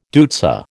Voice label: "deutzia", American